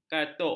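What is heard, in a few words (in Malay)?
"katuk", Malay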